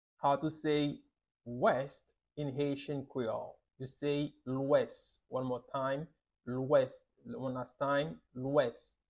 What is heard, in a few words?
"Lwes" Haitian Creole pronunciation by a native Haitian tutor; How to say West in Haitian Creole